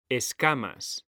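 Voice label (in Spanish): "escamas", Spanish; mekanta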